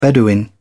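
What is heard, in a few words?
"bedouin", English, British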